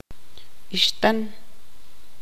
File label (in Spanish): "chica", tzutujil